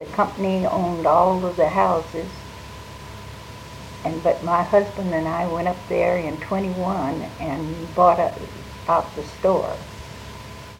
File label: Oral history with 83 year old female Colorado, american english dialect